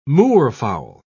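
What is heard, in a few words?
"moorfowl", British English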